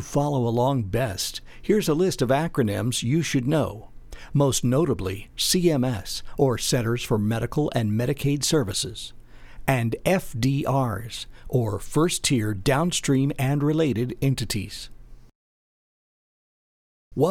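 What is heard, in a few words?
Training Video, male voice; Corporate; Compliance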